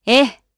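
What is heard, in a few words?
Vox, voices, Japanese; Glenwys; kingsraid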